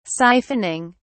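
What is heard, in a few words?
"siphoning", British English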